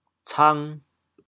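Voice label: "cang2", Teochew, chaoyang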